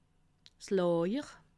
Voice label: "lawer", alsace

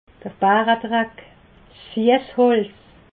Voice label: "baredreck", alsace

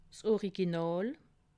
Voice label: "original", alsace

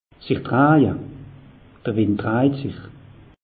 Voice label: "sich dreije", alsace; meteo

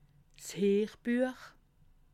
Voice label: "heerbuech", alsace

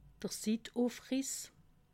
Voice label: "sittufriss", alsace

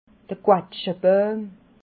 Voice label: "zwatschbauim", alsace

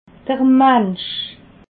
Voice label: "mensch", alsace

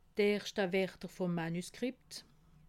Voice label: "werter", alsace

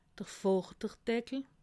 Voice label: "vorderdeckel", alsace

